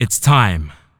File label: it's time male